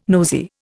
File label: "nosey", Indian